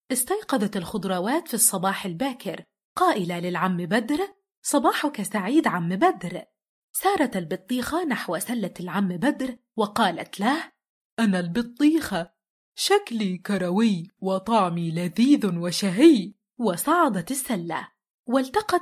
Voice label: Animation video educate letter 3 charachers; Ba a

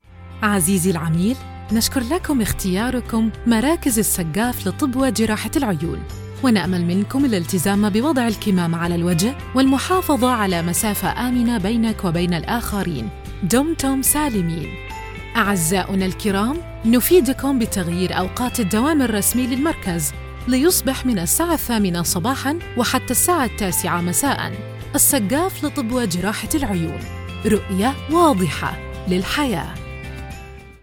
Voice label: Khaliji accent Eye Center Welcoming friendly; IVR

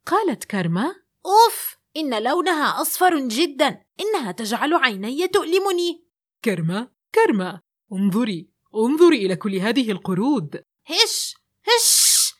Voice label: Dad and little angry girl, Voice Acting

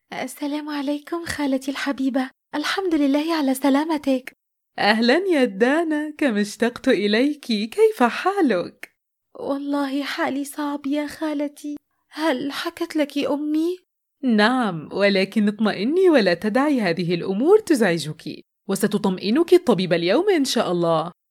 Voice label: Aunt Teenage girl tired Optimistic, Voice Acting

